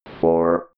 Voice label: robot voice four

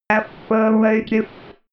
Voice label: robot voice affirmative

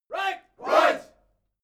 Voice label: military shout english "right"

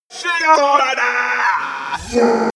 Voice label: monster glitch talk; kreaturen

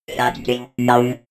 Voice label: robot voice shutting down fx

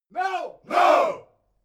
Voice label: military shout english "no"

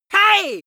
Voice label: angry "hey" male

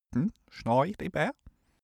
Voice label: male gibberish scandinavian

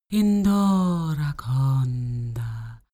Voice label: female talk elfish

